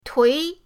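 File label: "tui", Mandarin pinyin, second tone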